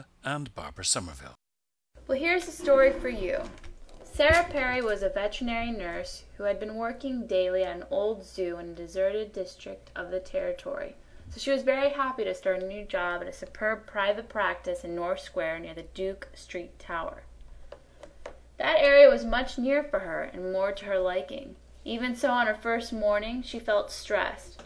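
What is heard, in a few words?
florida, International Dialects of English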